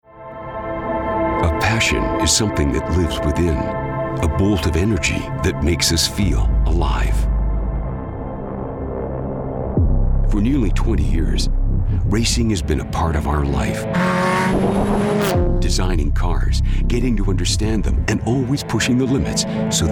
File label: voiceover examples